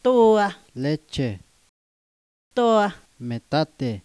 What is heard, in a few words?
triqui; mexico